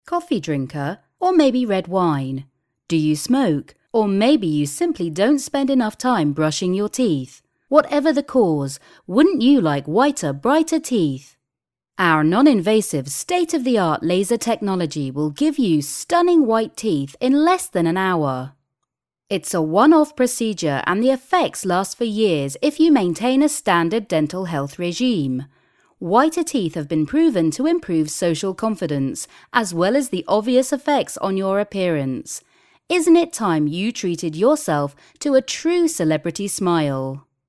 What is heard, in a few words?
commercial